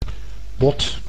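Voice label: "bot", Dutch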